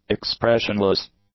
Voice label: "expressionless", english; adjectives